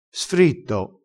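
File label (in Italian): "sfritto", dialettale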